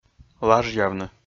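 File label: "лажъявны", Komi-Zyrian, male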